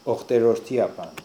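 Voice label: "Okhterordiapan", pronunciations; Fourth Way; Beelzebub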